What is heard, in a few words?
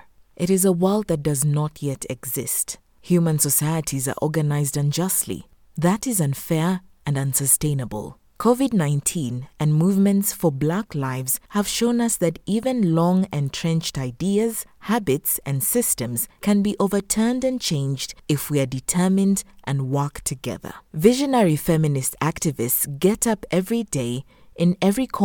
female african kenyan english with kenyan accent documentary narration